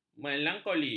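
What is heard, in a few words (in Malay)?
"melankoli", Malay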